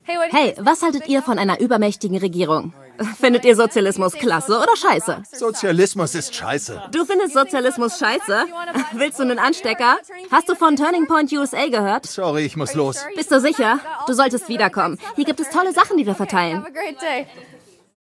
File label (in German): Voice Over Doku situativ; ARTE; LOFT VOICES